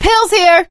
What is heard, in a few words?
spot pills, survivor voice; source engine; left 4 dead 2; producer